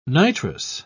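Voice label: "nitrous", British English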